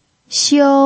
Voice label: "xiu1", pinyin